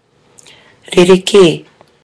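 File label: "rereke", Māori; people and kea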